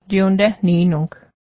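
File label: store supermarket, Cayuga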